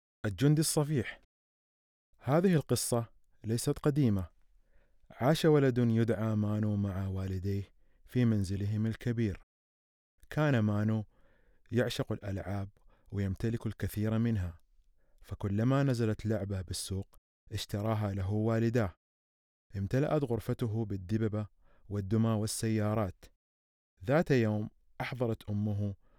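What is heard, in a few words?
arabic male character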